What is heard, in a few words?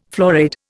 "florid", Indian